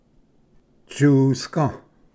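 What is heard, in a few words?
"tsusga", Cherokee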